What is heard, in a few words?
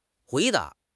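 "hui2 da2", Chinese; HSK3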